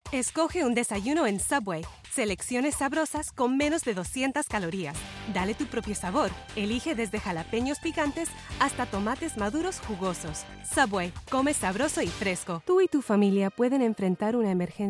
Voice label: languages female spanish

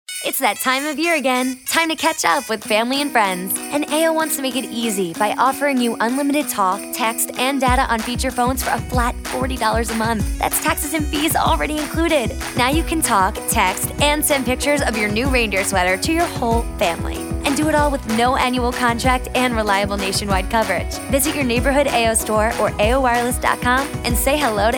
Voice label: commercial women

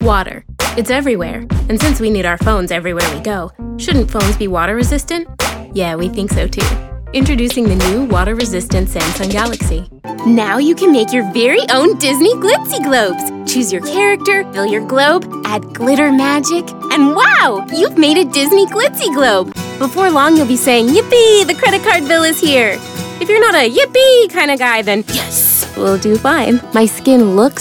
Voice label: commercial, nonbinary

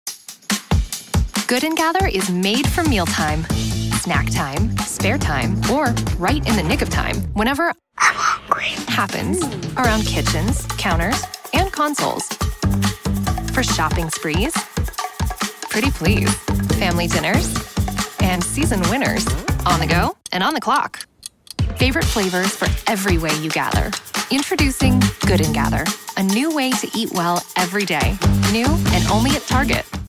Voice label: commercial women

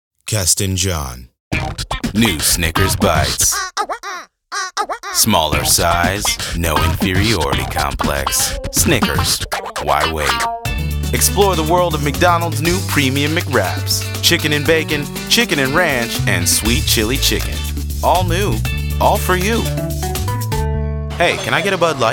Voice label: commercial, men

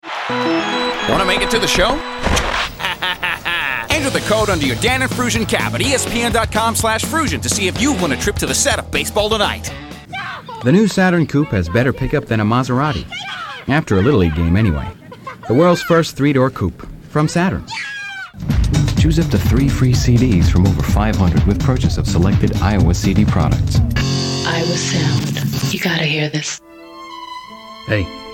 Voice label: commercial, men

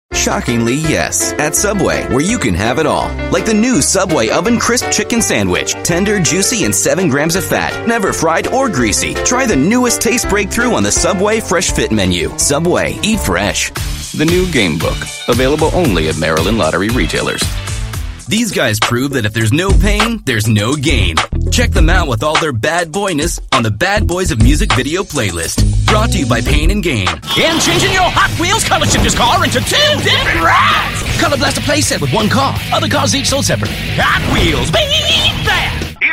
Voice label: commercial male

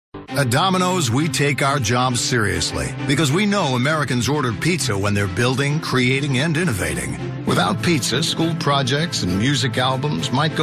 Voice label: commercial, men

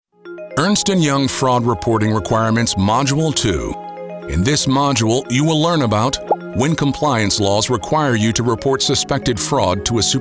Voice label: commercial men elearning

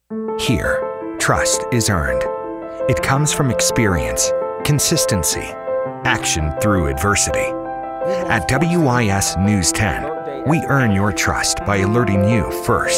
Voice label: tv affiliate men

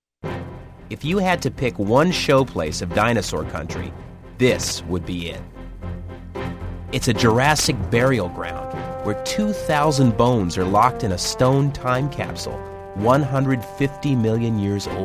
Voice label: narration male

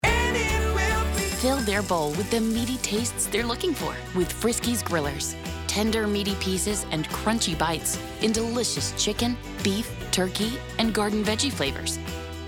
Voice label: commercial, women

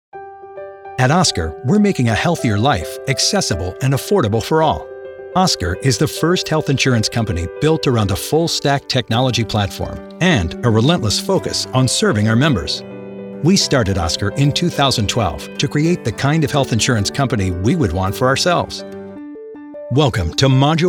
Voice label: commercial men medical

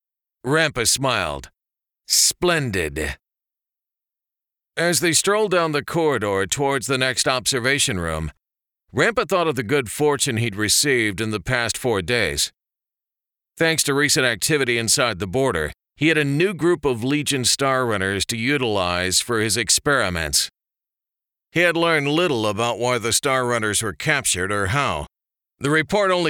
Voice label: audiobooks men action

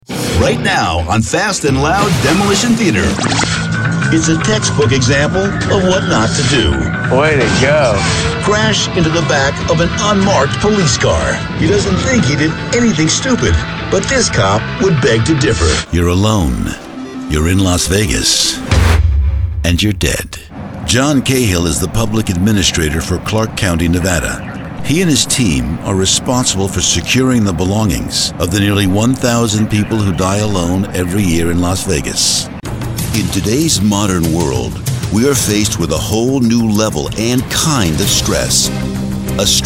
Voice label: narration

